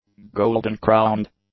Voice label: "Golden-crowned", English; nouns

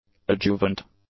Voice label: "adjuvant", English; adjectives

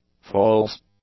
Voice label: "false", english; adjectives

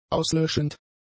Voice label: "auslöschend", German; adjectives

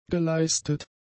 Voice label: "geleistet", German; adjectives

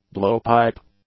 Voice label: "blowpipe", English

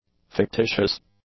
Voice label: "fictitious", english

